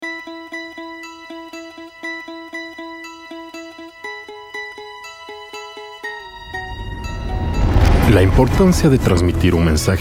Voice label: Voice Over